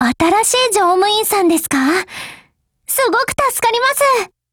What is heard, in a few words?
JP; 81typeR